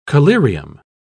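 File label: "collyrium", British English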